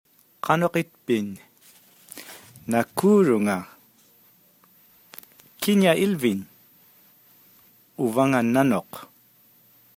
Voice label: Inupiaq